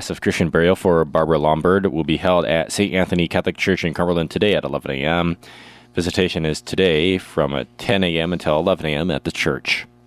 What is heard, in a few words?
Funeral Announcements